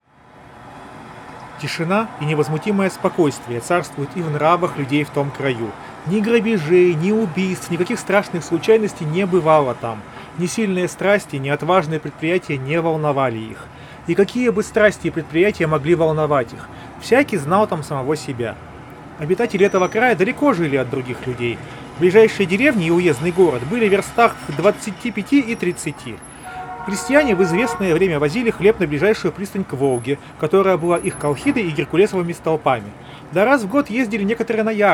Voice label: Recorder noise; huawei freebuds pro 5